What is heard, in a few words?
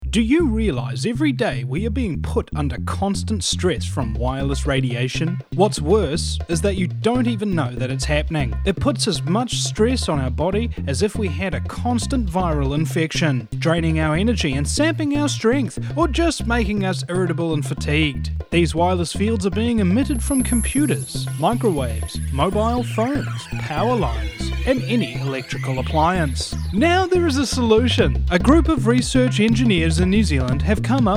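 radio ad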